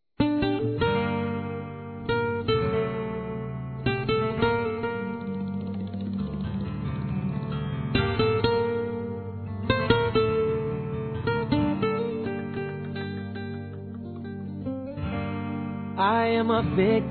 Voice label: ROMANTICA F

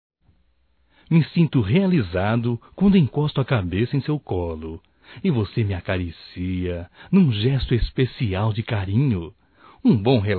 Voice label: ROMANITCA, Telemensagens, masc

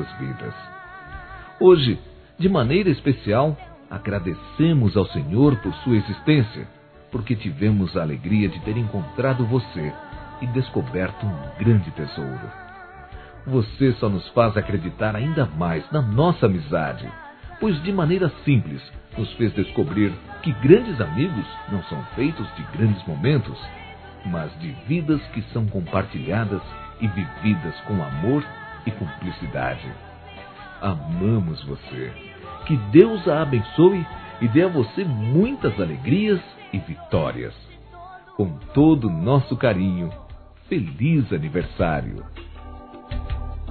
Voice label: AMIGA (NÓS ENVIAMOS) M, Telemensagens; ANIV. Evangelico

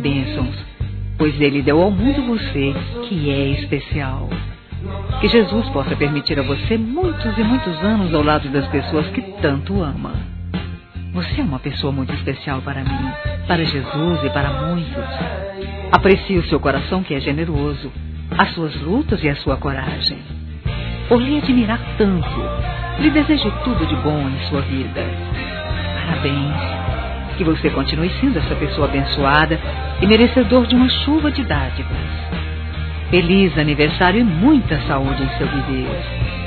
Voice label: IRMÃO F

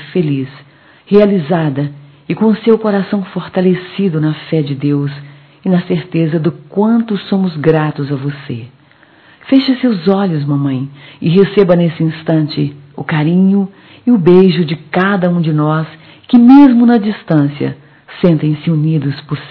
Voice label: Mãe distante no plural f, Telemensagens; Dia das Maes; Telemensagens 2017

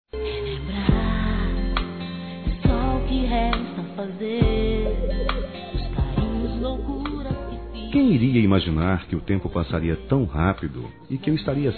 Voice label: FILHO DISTANTE M

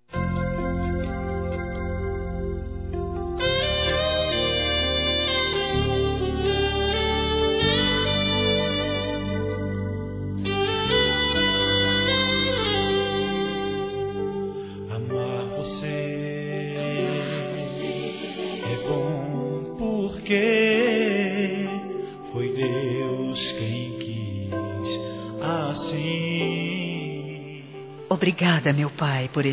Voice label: Aniversário Filha Evangelico Feminino; Aniversários

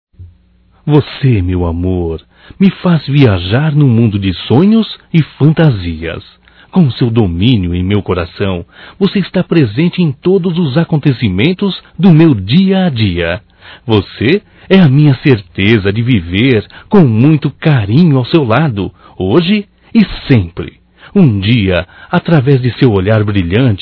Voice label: Romântico Masculino, Telemensagens; Românticas

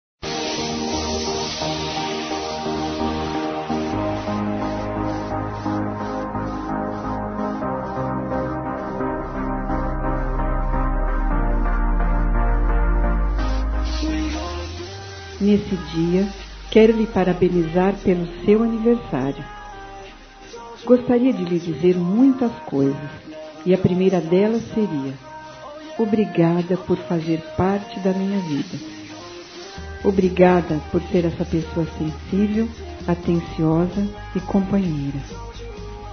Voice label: IRMÃO(Ã) F; Telemensagens 2017; Aniversários; Aniv Familiar

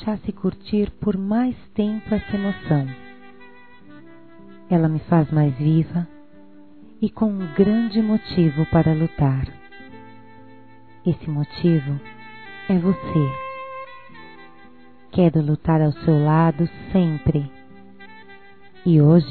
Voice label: Aniversário Esposo Feminino, Telemensagens; Aniversários; Aniv Familiar

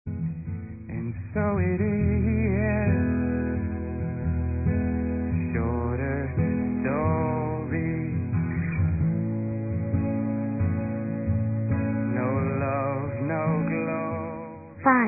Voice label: BEBÊ NO VENTRE DA MÃE (VOZ INFANTIL), Telemensagens, Dia dos Pais; fem; Telemensagens 2017

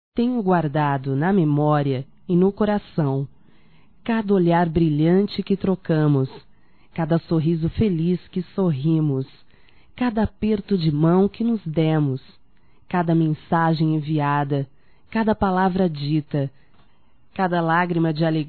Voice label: ROMANTICA(F), Românticas, fem

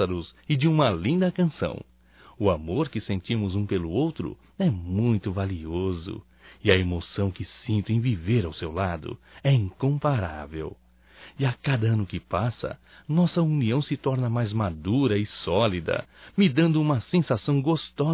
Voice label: ANIV Romântico (M); Aniversarios; Telemensagens 2017

